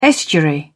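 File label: "estuary", British English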